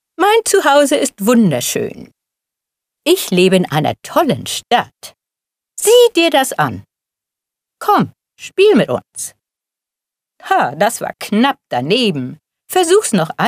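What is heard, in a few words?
German female children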